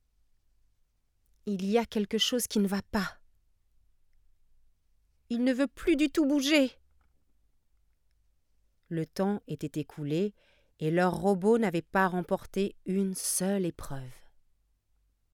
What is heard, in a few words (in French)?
robots fr; marmite